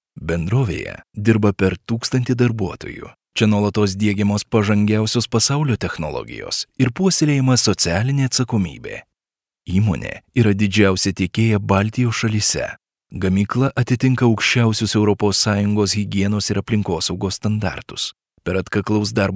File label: lt corporate, male, lithuanian